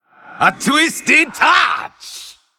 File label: Undead Troll Emote Attack